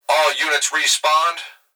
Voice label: Emergency Human Male Voice Police Dispatch All Units Respond; emergency effects